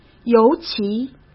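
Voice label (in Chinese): "尤其", Mandarin; chinese-flashcards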